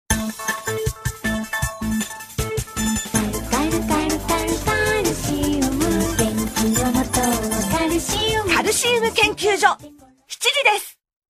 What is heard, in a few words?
Radio CM